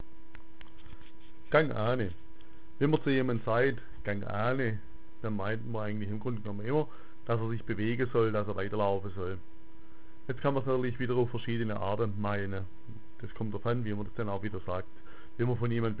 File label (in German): gang ane, schwaebisch